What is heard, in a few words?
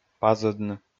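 "пазӧдны", Komi, male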